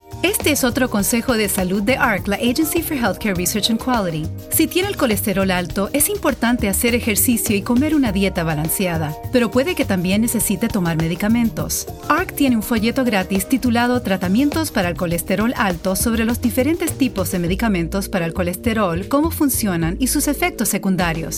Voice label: Spanish Diabetes PSA; HHS Diabetes